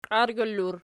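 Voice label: "qiargyla" plural, Archi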